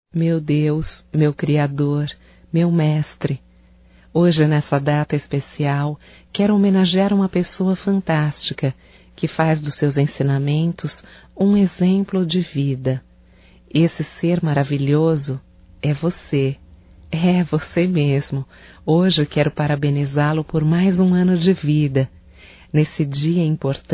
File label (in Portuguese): Aniversário Religioso Feminino; Aniversarios; Telemensagens; Telemensagens 2017